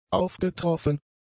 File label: "aufgetroffen", German; adjectives